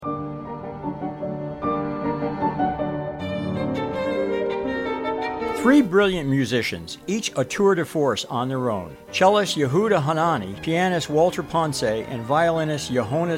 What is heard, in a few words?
radio spot; wfom